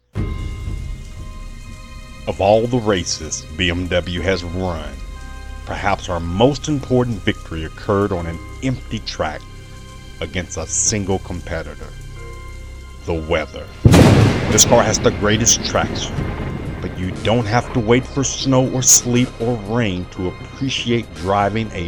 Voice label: Car Commercial